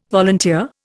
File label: "volunteer", Indian